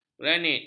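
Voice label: "granit", Malay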